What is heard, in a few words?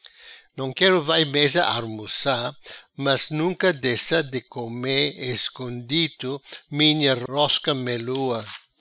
"Nom Quero Vai Meza", patua